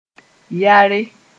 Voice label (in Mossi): "yare", moore